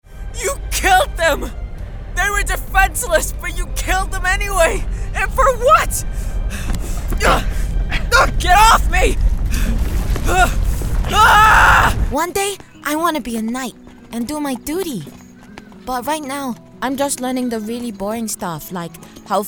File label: Character Video Game